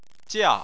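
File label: "jia", Chinese, fourth tone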